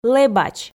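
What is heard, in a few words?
"лэбач", Komi, female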